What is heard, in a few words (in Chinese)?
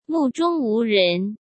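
"目中无人", Mandarin Chinese; hsk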